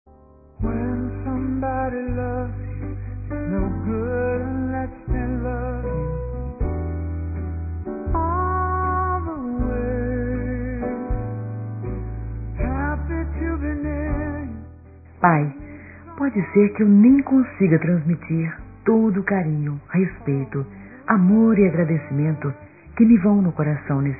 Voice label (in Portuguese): FILHA PARA PAI, fem; Telemensagens 2017